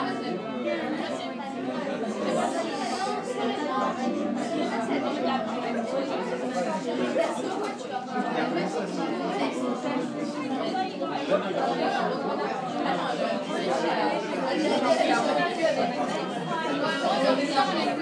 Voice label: annoying and loud spanish and italian teenagers at starbucks